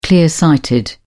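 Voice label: "clear-sighted", English, uk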